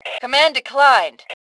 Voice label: Command Declined, Female; T2 Female 03

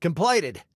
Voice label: Command Completed, Male

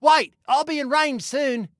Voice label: Target Wait, Male; Male 02

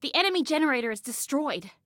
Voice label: Enemy Generator, Female; Female 02

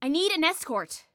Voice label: Need Escort, Female; Female 02

